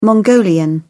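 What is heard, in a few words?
"mongolian", English, British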